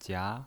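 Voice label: "jia", second tone, pinyin voice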